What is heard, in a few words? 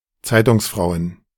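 "Zeitungsfrauen", German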